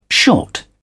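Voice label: "short", British English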